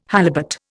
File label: "halibut", Indian